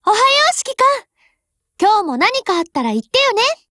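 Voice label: HELLO, Japanese; GF Alarm; UMP9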